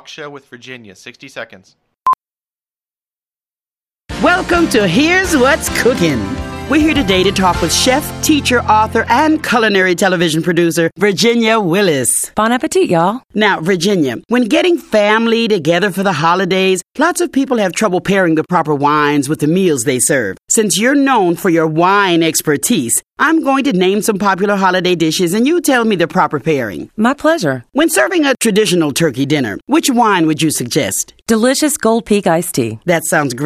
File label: radio ad; goldpeak